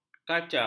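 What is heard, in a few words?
"kaca", Malay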